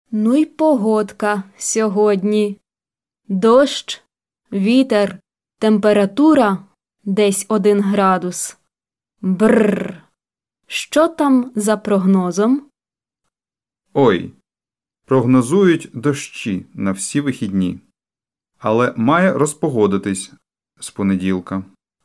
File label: Dialogue Slow